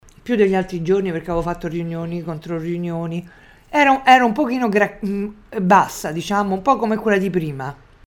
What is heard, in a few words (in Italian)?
disfonia postchirurgica; voce